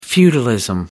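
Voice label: "feudalism", English, British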